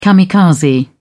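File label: "kamikaze", British English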